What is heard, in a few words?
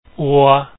ua vowel, Lao